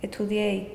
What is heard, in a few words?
"estudieis", andalusien sevilla; estudiéis [etʰuˈð̞jejs]